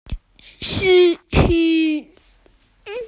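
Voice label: "driver", Mandarin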